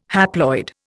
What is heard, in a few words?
"haploid", Indian